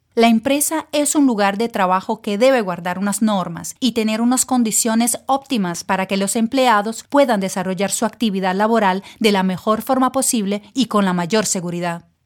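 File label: E-Learning, Spanish; Seguridad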